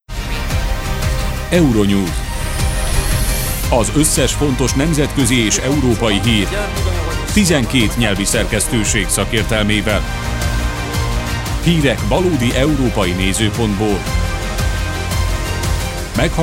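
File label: Hungarian, Broadcast; GoLocalise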